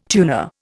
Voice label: "tuner", Indian

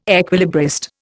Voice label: "equilibrist", Indian

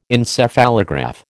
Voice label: "Encephalograph", American

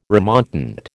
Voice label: "remontant", American

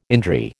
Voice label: "indri", American

